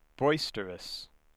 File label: "boisterous", spoken by an American